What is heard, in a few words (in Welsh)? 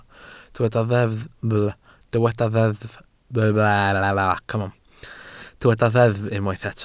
"deddf" Welsh